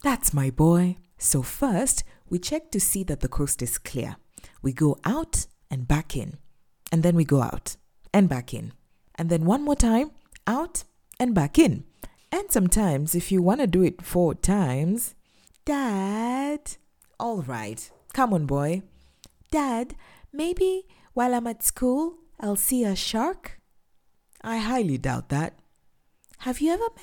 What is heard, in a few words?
English African animation